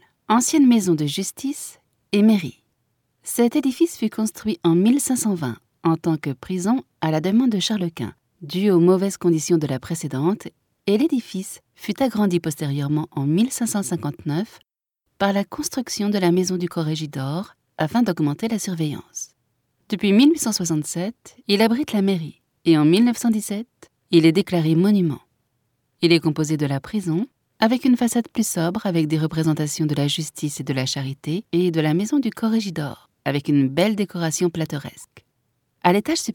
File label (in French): audioguia, frances; audioguia baeza